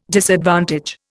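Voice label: "disadvantage", Indian English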